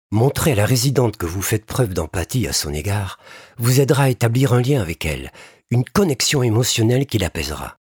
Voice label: E-learning; Maison de famille; Studio Elixir; Acteur / Comédien